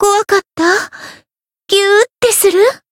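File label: Voice win Japanese; pizzica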